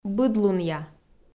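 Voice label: "быдлунъя", Komi-Zyrian, female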